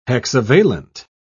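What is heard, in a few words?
"hexavalent", English, British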